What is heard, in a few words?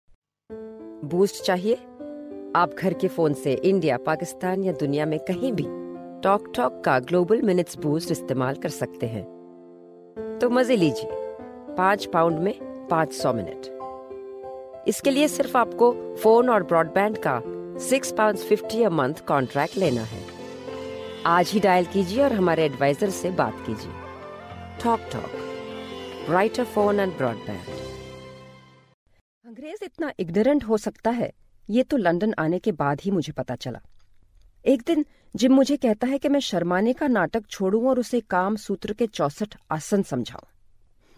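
Hindi, General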